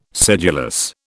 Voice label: "sedulous", British